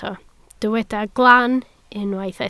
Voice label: "glan", Welsh

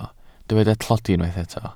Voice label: "tlodi", Welsh

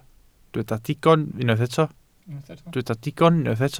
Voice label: "digon", Welsh

